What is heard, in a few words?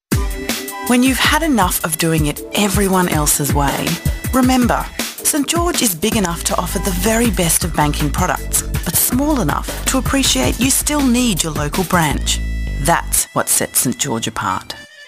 Voice Over; st george sample